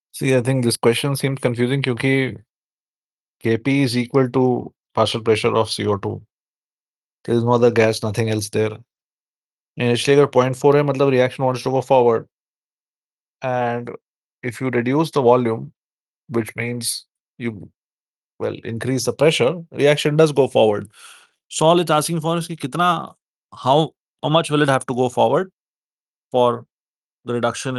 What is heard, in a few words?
voice message; pressure volume pyq - iTeachChem